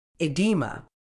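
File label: "oedema", medical English, British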